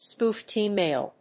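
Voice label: "spoofed e-mail", English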